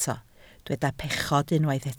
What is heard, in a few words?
"pechod", Welsh